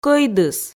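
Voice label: "кӧйдыс", Komi, female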